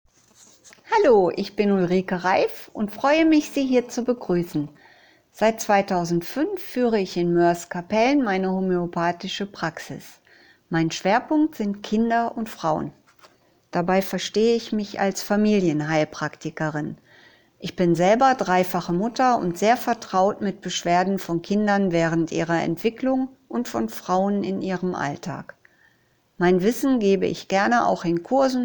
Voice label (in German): Sprachbegruessung